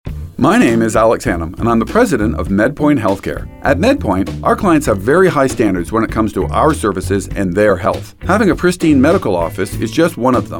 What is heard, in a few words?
Testimonial; JDI Cleaning Medpoint